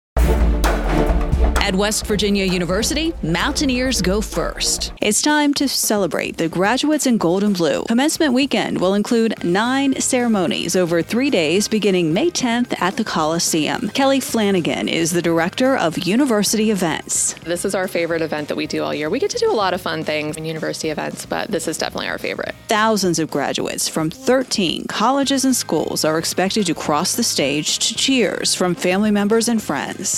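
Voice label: radio spot; commencement preview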